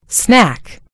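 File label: "snack", British English; gcompris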